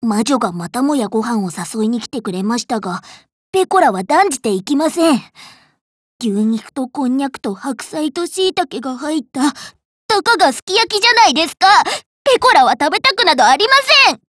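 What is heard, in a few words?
dialogue, Japanese; Pekora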